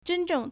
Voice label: "zhen1zheng4", Chinese